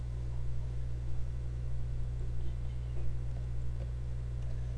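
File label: "pick", spoken word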